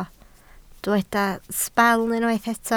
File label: "sbel", Welsh